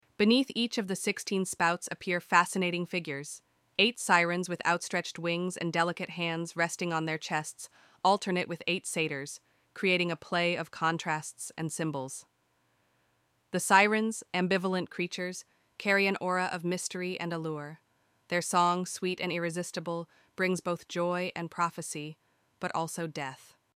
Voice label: Sirene ENG; etruscan chandelier of cortona